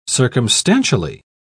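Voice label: "circumstantially", British English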